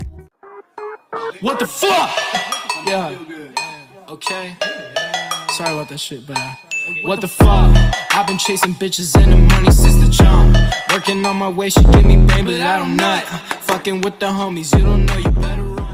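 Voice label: What The Fuck Yeah Okay Sorry English Ringtone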